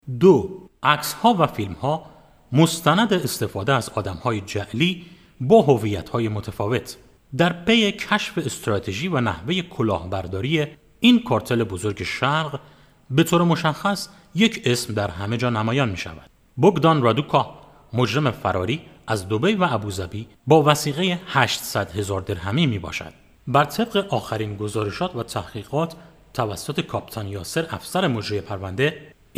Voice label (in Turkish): Farsça